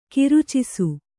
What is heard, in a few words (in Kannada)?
"ಕರ್ಚಿಸು", kannada